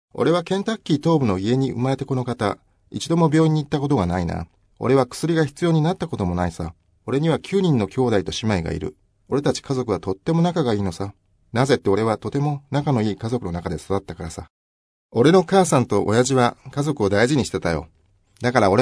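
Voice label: japanese male voice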